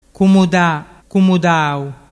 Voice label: "cumudadau", dialettu